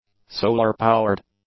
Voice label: "solar-powered", english; adjectives